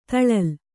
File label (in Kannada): "ದಲಾಲ", Kannada